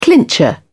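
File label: "clincher", British English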